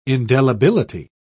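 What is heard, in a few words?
"indelibility", British English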